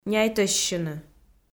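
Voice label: "няйтӧссьыны", Komi, female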